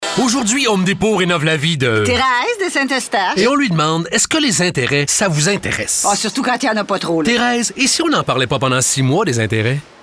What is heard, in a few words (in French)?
voix femmes